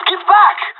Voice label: radio bot fall back; Counter Strike Model Pack; CS:GO

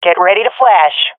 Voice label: request flash, swat fem; vo; Counter Strike Model Pack; CS:GO

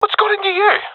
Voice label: takingfire friendly, professional epic, agents; vo; Source Model Packs; Counter Strike Model Pack; CS2

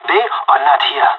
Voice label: cleared area, pirate; Counter Strike Model Pack; CS:GO

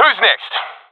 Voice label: i killed enemy, professional; vo; Counter Strike Model Pack; CS:GO

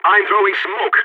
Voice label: smoke, balkan; vo

